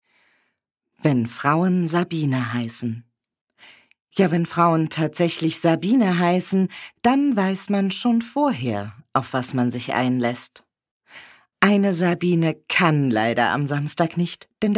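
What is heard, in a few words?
German Audiobook female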